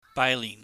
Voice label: "baainihn", cantonese

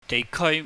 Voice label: "deihkeui", cantonese

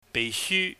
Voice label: "beihsyu", cantonese

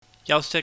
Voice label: "yau sik", Cantonese

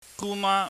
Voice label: "guma", Cantonese

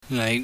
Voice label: "nei", pingyam

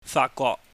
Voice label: "faatgwok", cantonese

